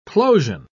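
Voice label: "plosion", British English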